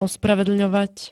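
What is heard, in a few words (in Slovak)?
"ospravedlňovať", Slovak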